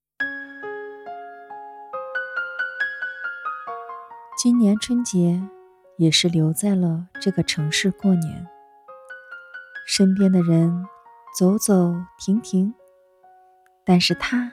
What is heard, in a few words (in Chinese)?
奶箱广告旁白温柔甜美; 三元奶箱